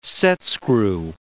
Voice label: "setscrew", English